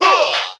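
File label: "huh", vocal sample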